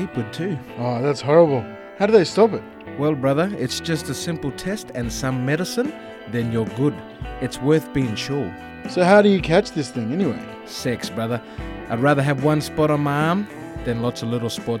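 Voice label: Syphilis MALE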